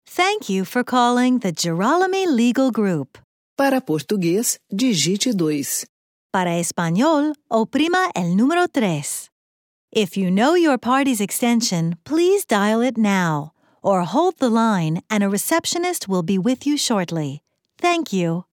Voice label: trilingual ivr